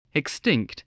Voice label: "extinct", voice